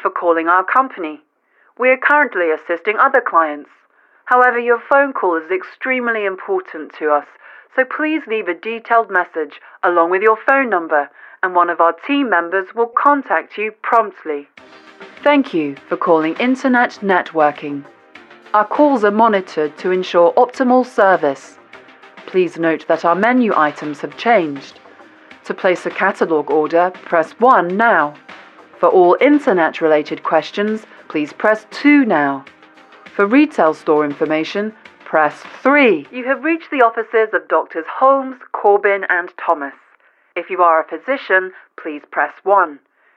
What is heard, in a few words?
Messages on Hold